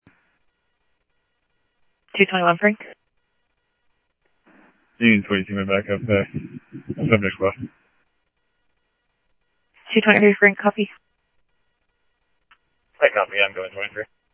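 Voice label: police department, scanner audio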